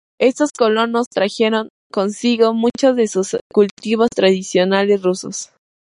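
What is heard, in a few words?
"consigo", Spanish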